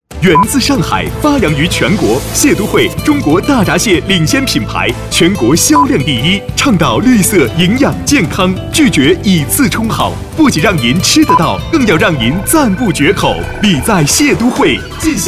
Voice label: 男 广告, 国语男声配音 大气浑厚; 蟹都汇

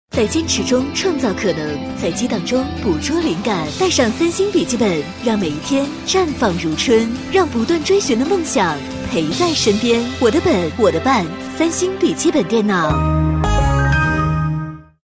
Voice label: 女 广告 笔记本电脑; 双语配音; 三星笔记本电脑

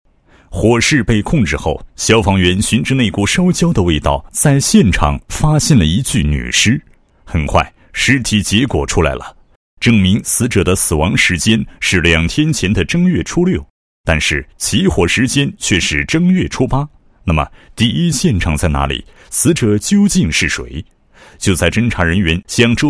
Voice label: 男, 公安建设 视频监控系统建设配音; 辽源市

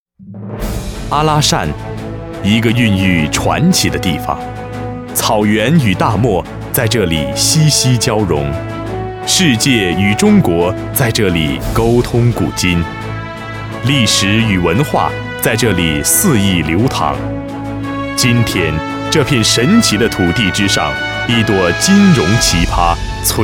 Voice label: 英男, 浑厚大气, 中文; 阿拉善

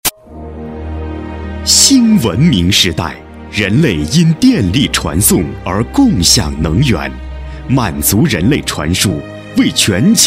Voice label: 男, 大气浑厚, 企业专题; 兴达线缆

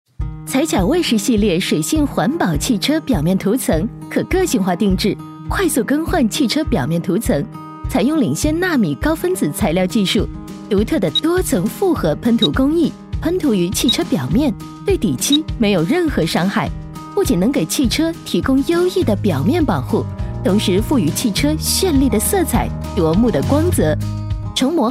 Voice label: 女 课件 汽车喷膜

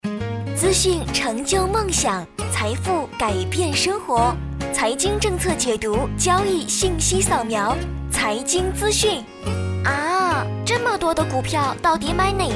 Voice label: 财经资讯片花

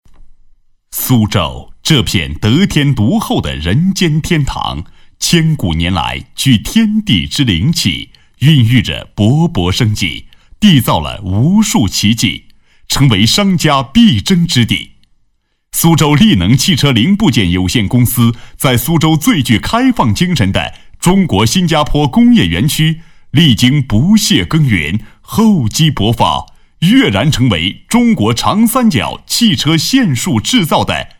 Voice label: 男7 专题 浑厚力能样 干音, 国语男声配音

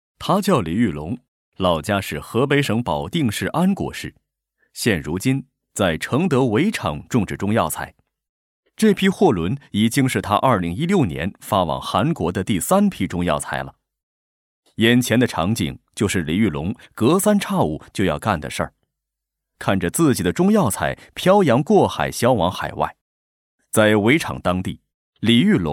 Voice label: 男 中央台7套解说, 国语男声配音

